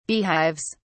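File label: "beehives", English, British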